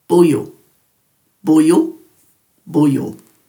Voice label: "buyu", Dhurga; dulagaal